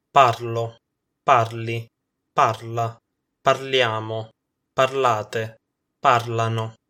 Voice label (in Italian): parlare coniugazione, Italian